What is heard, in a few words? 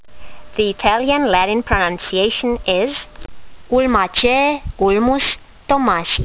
latin; Ulmus thomasii